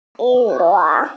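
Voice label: "fishing net", Mandarin, hearing-impaired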